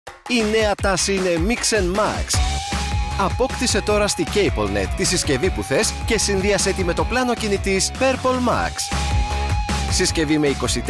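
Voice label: Greek, Commercial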